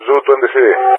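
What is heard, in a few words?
"ZU-23", English, GREECE; Allied Flight; AirDefence; DCS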